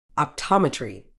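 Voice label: "optometry", medical English, British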